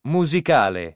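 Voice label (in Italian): "musicale", Italian word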